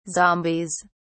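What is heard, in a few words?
"zombies", British English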